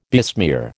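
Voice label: "besmear", American English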